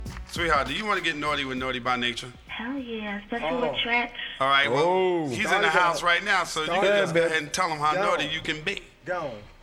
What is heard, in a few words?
Radio Skit